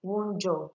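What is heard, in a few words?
"wunjor", Nigerian Pidgin, female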